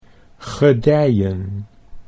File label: "gedijen", Dutch